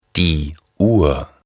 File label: "die Uhr", German